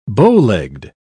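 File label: "bowlegged", English, British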